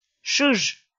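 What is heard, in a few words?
"шыж", Komi, female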